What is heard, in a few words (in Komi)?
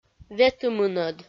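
"ветымынӧд", Komi, female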